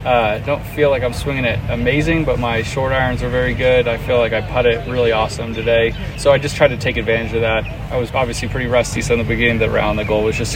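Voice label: English; Max Homa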